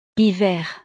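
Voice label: "hiver", French; los meses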